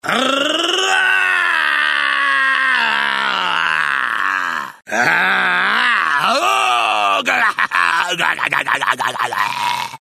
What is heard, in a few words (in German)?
abgefeuerter Zwerg Stimme; Simon the Sorcerer 3D; Adventure Soft; Abgefeuerter Zwerg (zweite Stimme)